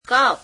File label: gujarati cups